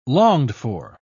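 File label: "longed-for", British English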